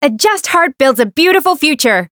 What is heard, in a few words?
variable chant; renri